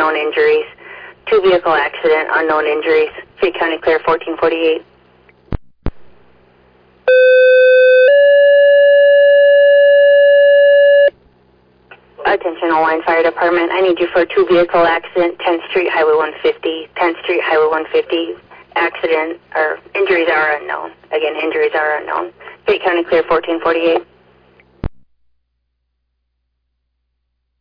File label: Oelwein Ambulance, dispatch